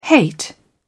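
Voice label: "hate", British English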